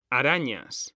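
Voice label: "arañas", Spanish, male; mekanta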